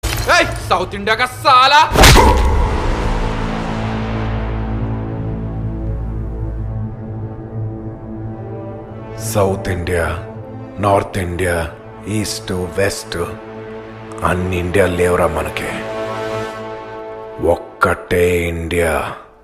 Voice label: Dialogue Impact Ringtone